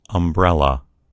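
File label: "umbrella", American English; gcompris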